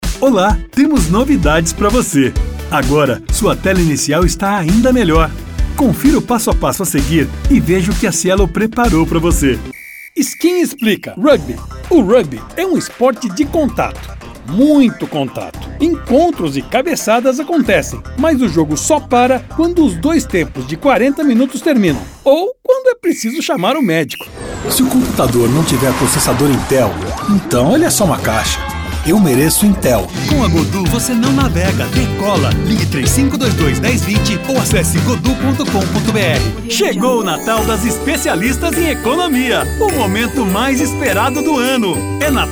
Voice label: casual

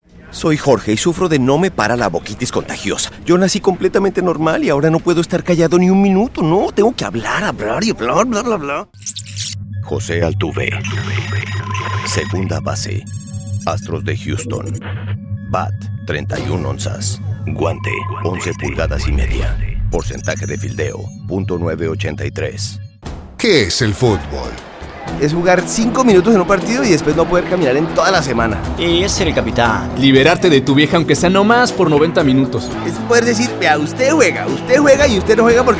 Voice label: CHARACTERS SPA

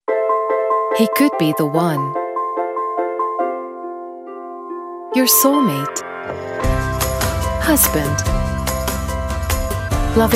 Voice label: American English Emotional TVC; Crest Care

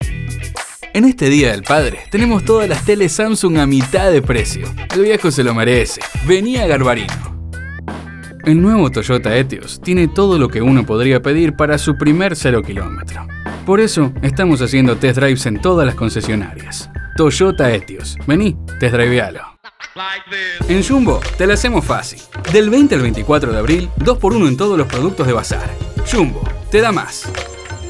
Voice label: Argentinian Accent Commercial

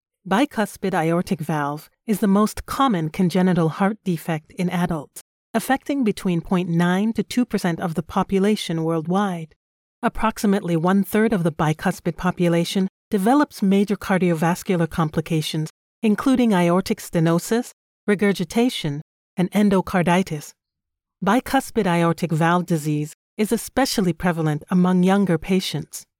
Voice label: Medical Explainer; Bicuspid Heart Valve

